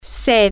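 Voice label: thirsty, Spanish, female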